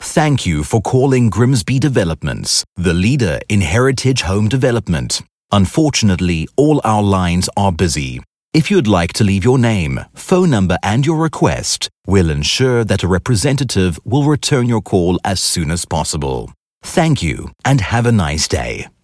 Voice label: IVR

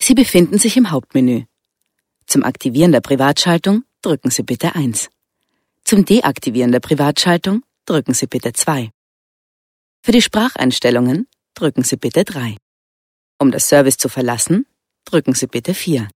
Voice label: ivr Service Menu Main

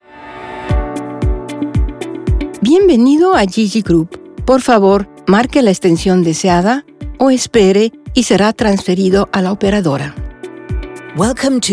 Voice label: Landline Welcome; ESEN

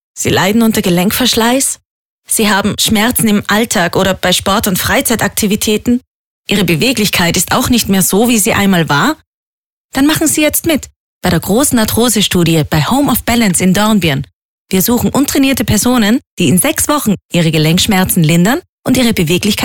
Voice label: SPOT; Arthrose Studie

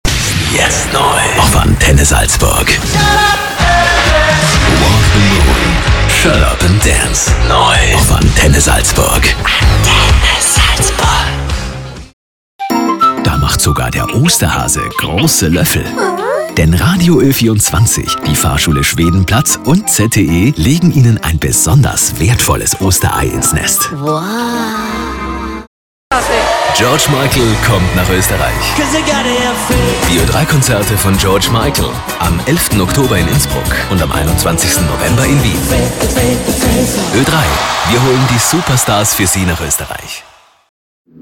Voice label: Station voice

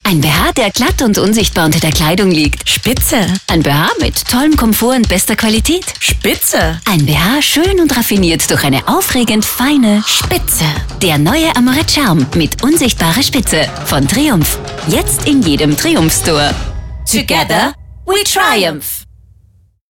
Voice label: SPOT; Triumph 2019

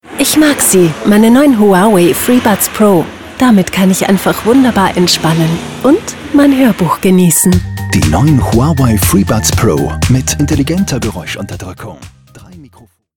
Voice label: Radio; Huawai

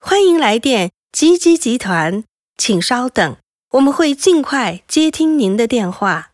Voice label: landline

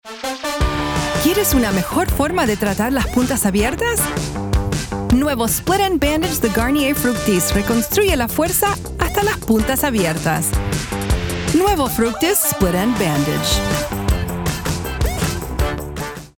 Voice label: Commercial Spanish

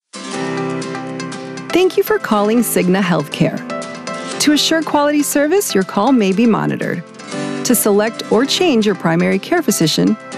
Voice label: English; Cigna Healthcare

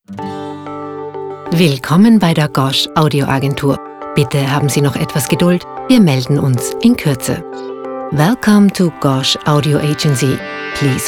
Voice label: Tele DE EN

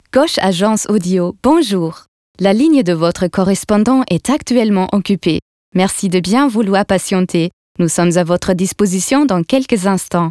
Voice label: FRENCH

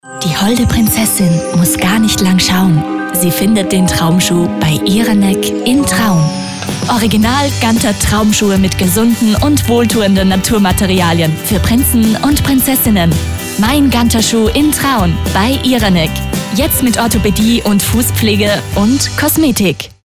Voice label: SPOT; Iraneck Bienenpate 2020

